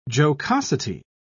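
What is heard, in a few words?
"jocosity", British English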